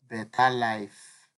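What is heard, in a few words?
"beta life", Nigerian Pidgin, male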